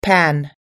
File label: "pan", us english